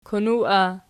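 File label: "kanuwas" imperative, Archi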